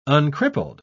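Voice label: "uncrippled", British English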